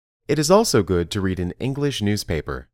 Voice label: Dictation